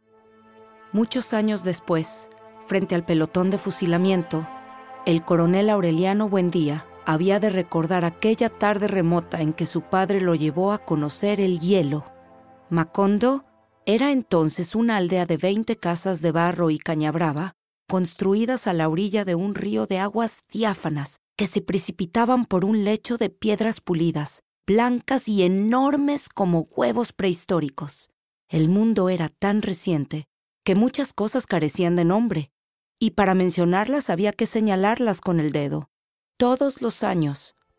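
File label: Spanish Mexican female Audiobook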